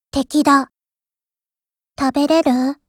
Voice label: warcry